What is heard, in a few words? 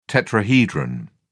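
"tetrahedron", English, British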